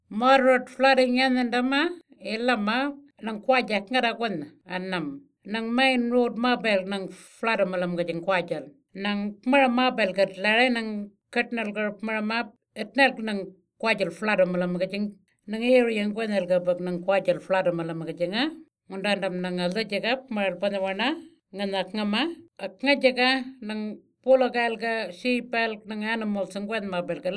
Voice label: east central Arrernte floods message; tropical cyclone esther february 2020; NTFRES